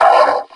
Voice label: metrocop zombie pain; scmod